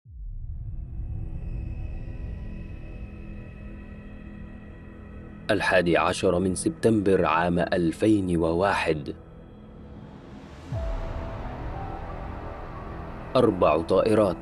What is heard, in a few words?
Promo sample